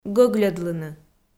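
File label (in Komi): "гӧгльӧдлыны", Komi, female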